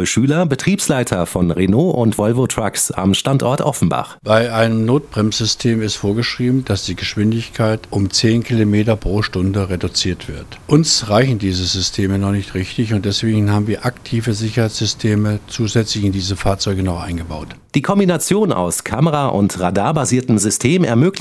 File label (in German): Infomercial Notbremsassistent; Antenne Frankfurt